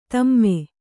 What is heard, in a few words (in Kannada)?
"ಧಮ್ಮ", Kannada